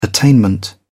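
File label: "attainment", English, British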